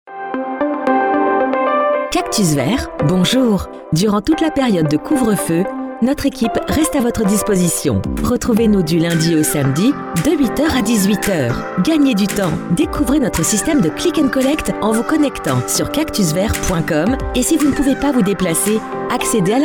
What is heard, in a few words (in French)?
Répondeur; Adaptations couvre-feu